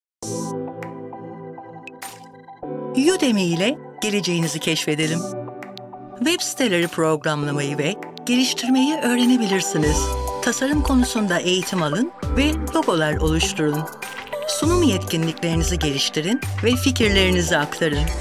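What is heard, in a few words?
Turkish Commercial; GoLocalise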